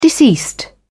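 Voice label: "deceased", English, British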